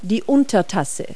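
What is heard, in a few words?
"saucer", English word; Multi-Lingual Talking Picture Dictionary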